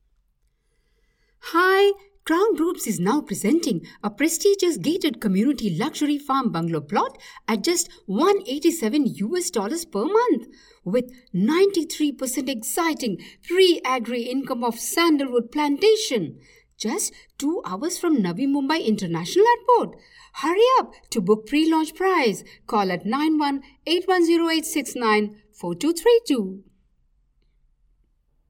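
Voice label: Indian English, Female; accent of india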